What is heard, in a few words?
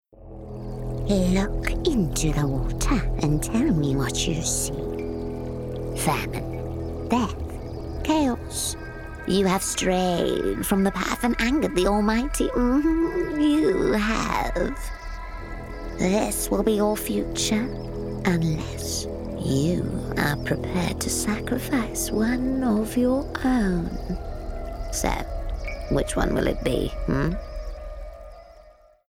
Witch Neutral